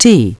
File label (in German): "T geschlossen", Navigation; DISTEFORA Navigation; Mobile Navigator Notebook